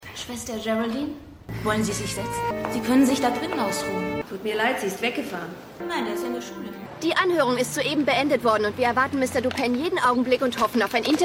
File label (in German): Schwester, Frau, Putzfrau, TV Journalistin; Silent Witness